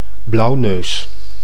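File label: "blauwneus", Dutch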